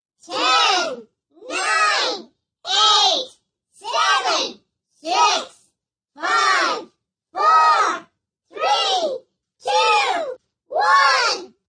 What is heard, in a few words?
Number Countdown, Group, countdown from 10-1 w.FX, munchkins, speaking, (human voice)